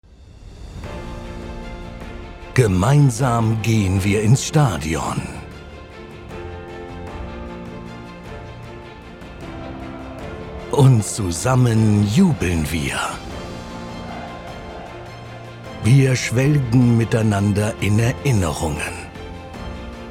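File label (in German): IMAGEFILM; HSV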